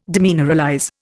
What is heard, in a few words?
"demineralize", Indian